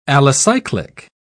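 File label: "alicyclic", British English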